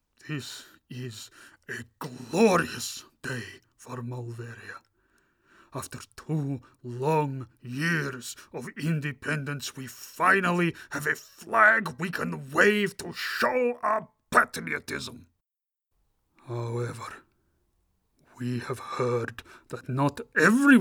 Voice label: Prime Minister Speech; Molvarian